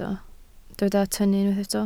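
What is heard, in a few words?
"tynnu" Welsh, spoken word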